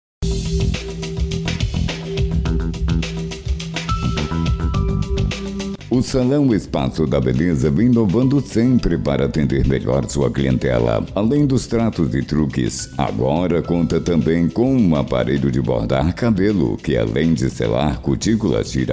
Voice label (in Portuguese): spot salao, voz; espaço da beleza itapetinga ba; LOCUTOR